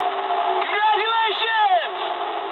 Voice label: eng player killed enemy mood med, speech eng; War Thunder